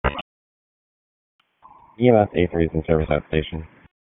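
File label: EMS Dispatch; wake; p25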